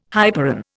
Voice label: "hyperon", Indian